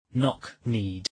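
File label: "knock-kneed", English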